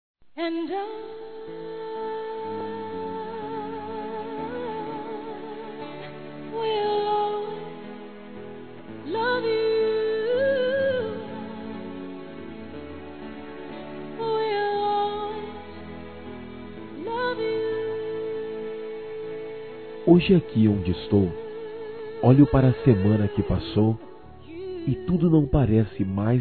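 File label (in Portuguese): reconciliação masculino; Telemensagens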